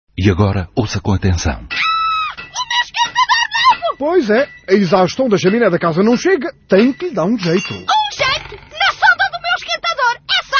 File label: radio spot; Spot Haa; seguranca